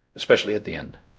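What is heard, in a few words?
Voice Acting Spanish, male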